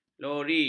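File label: "lori", Malay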